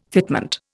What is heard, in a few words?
"fitment", Indian